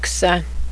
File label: goats, cheyenne language